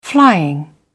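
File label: "flying", British English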